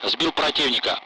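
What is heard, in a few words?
Speech, Russian; Allied Flight; splash bandit; DCS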